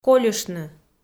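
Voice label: "кольыштны", Komi, female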